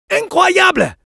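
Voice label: Medic good job, French; Incroyable !; Robot Medic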